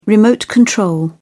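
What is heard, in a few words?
"remote control", English, British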